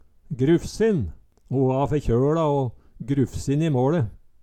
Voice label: "grufsin", Numedalsmål

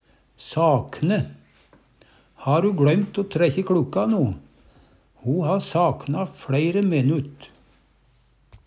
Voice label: sakna, Numedalsmål

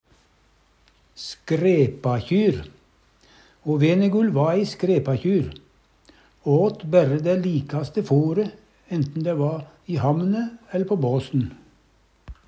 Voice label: skrepakjyr, Numedalsmål

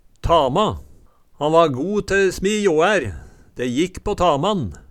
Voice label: tama, Numedalsmål

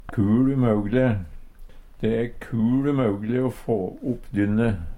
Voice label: kul umaugle, Numedalsmål; heilt umogeleg; Adverb

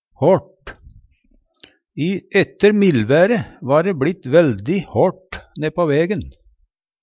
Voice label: håLt, Numedalsmål

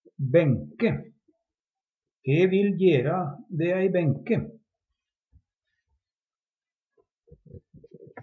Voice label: "benke", Numedalsmål; Substantiv hokjønn; E vil jera de ei benke.

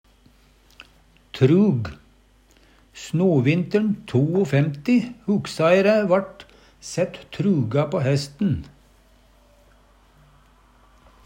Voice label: truga, Numedalsmål

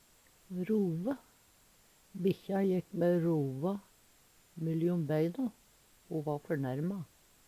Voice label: rove, Numedalsmål

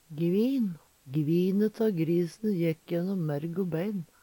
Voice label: "gvin", Numedalsmål; Gvine tå grisen jekk jønnom merg o bein.

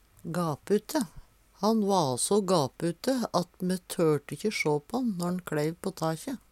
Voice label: "gapute", Numedalsmål; Han va so gapute at me tørte ikkje sjå på`n når`n kLeiv på takje.

